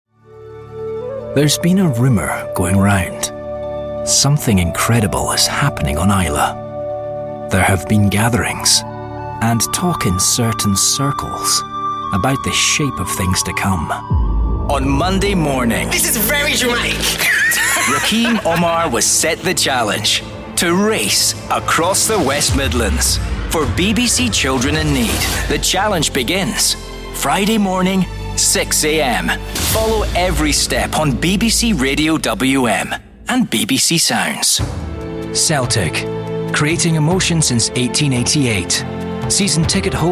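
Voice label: montage mainly commercial, Young Scottish Voiceover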